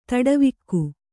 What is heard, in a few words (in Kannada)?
"ತಡವಿಕ್ಕು", kannada